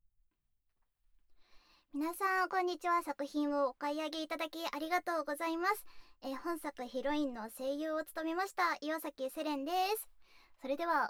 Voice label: フリートーク; KU100; ダウナーな奴隷少女とやさしい時間

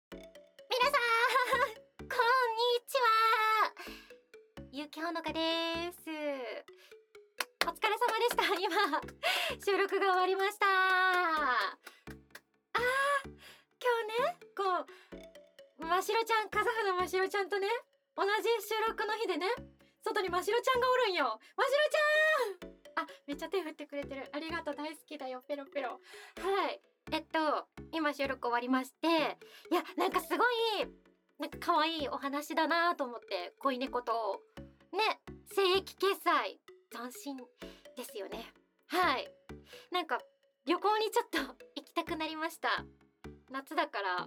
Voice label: フリートーク; tr02 フリートーク; オムニバスえっち

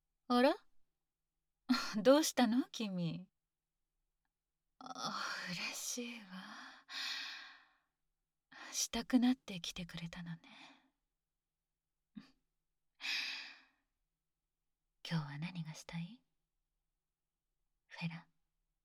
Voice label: フリートーク; 欲求不満のどすけべ爆乳美熟女オーナー葉月さん 家賃はセックス払いでお願いね

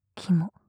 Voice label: きも・極近・右, ASMR

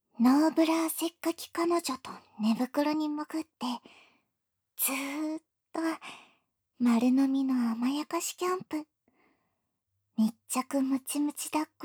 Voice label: タイトルコール, ASMR

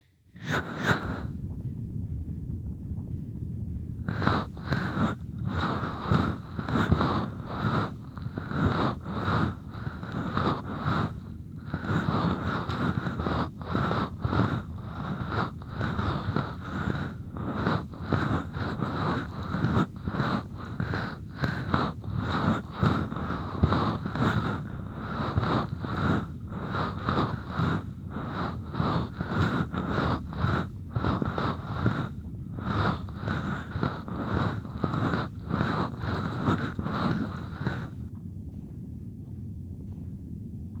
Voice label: 指ずぼMIX両耳, ASMR

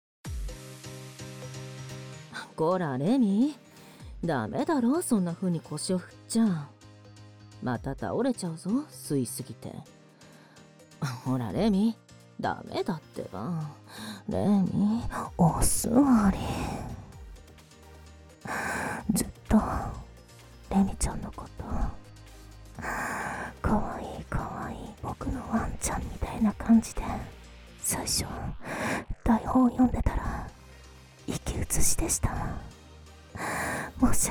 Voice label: フリートーク, ASMR; KU100

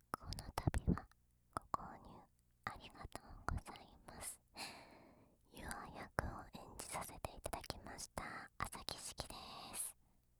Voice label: フリートーク; 日语版; KU100; 量产型女孩

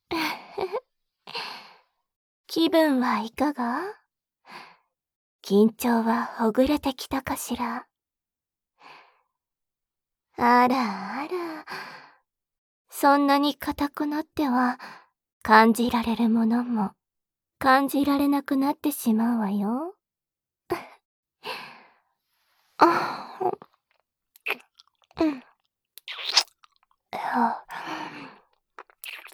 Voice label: 囁き乳首調教 触手オナホ補助 囁き強化 効果音 射精音あり; KU100; 「触手×乳首責め」ドスケベサキュバスに狙われて乳首を改造されながら搾り取られるお話「オノマトペ×耳触手」

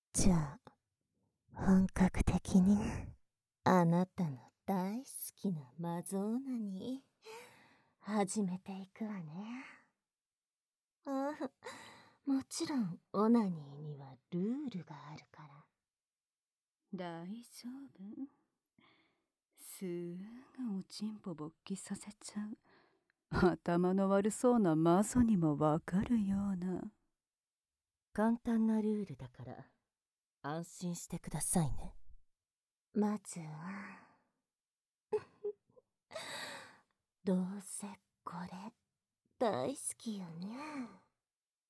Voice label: シコシコボイスと妄想煽りで遊ばれちゃう, ASMR

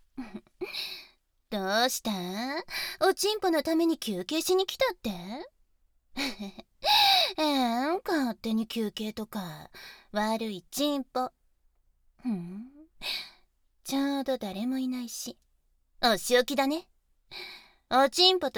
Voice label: アナル舐め手コキ2連射 左 語り掛け, ASMR; ギャルフェラッ!!学校は援交ギャル風俗店ッ!!

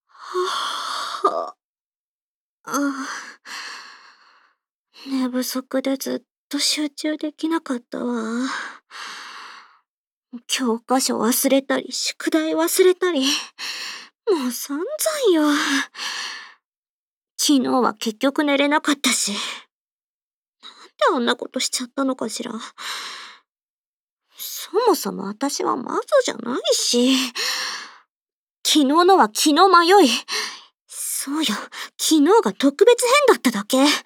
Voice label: voice stem, ASMR; SEなし; 【オホ声/汚喘ぎ/NTR】「先輩、アタシと別れてください 」 彼女が弟のチャラ雄チ●ポに敗北してマゾメスチンハメおま●こ奴隷になった話

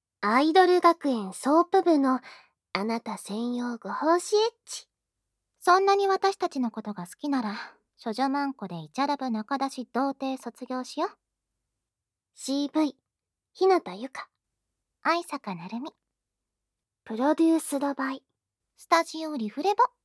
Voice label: タイトルコール, ASMR

